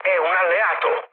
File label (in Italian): player friendly fire, mood low, speech it